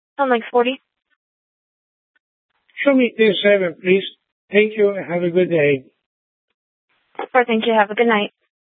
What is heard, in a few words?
Sheriff, scanner